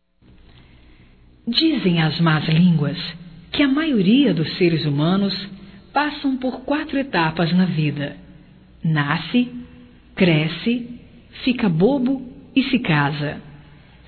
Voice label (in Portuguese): Parabéns Pelo Noivado Por Ela Feminino; Telemensagens 2017